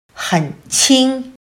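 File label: "很轻", Chinese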